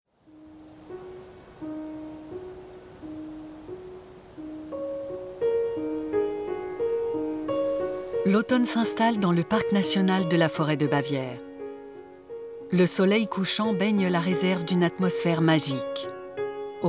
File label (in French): Documentaire; la forêt de Bavière